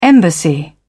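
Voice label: "embassy", British English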